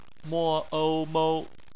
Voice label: "mou", Chinese; phonetics